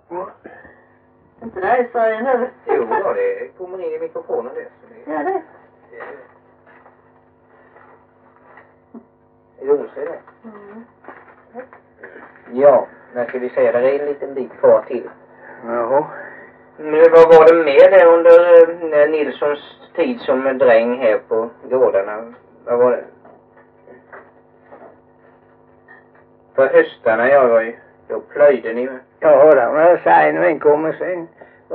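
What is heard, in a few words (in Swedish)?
Mjällby Blekinge, dialekter; Folkminnen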